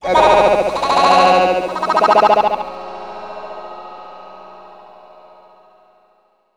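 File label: effects talk, Funky Vocals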